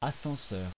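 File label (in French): "ascenseur" French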